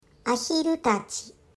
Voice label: "ducks", Japanese